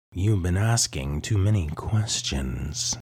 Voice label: Evil assassin with sinister edge, cunning menace, and ruthless determination, Audiobook Villain; Knave